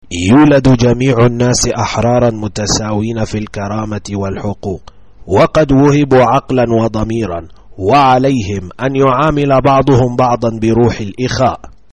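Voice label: Arabic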